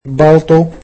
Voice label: "coat", egyptian